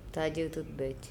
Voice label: tajiotutbEc bird, Andamanese

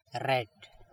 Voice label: "rET", andamanese

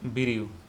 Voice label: "biriu", Andamanese

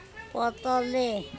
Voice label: "potole", andamanese

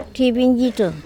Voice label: "THibinjithom" earthquake, andamanese

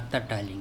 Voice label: ata Taling bring up someone, andamanese

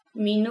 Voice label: "minO", Andamanese; potato

